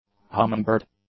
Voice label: "hummingbird", English; nouns